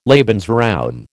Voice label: "Lebensraum", American

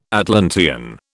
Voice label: "Atlantean", British

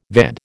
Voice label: "VAD", American

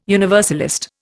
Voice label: "universalist", Indian

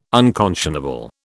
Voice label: "unconscionable", British

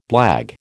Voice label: "blague", American

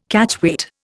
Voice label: "Catchweight", Indian

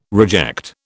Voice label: "reject", British

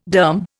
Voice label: "derm", Indian

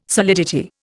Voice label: "solidity", Indian

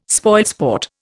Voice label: "spoilsport", Indian

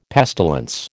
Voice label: "pestilence", American

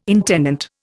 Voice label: "intendant", Indian

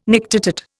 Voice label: "nictitate", Indian

